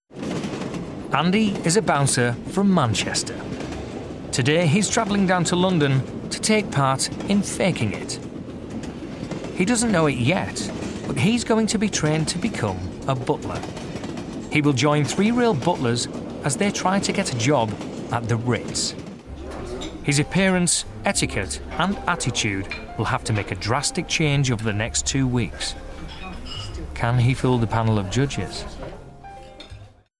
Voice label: DOCUMENTARY Reality TV Narration Straight Informative; Faking It